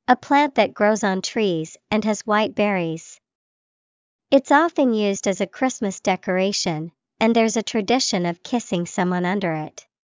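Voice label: mistletoe, English vocabulary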